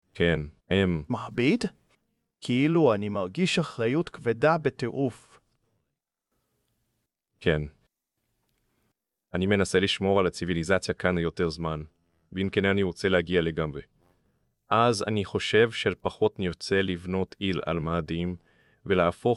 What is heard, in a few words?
hebrew; Subformer